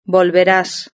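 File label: "volverás", Spanish; verb-conjugator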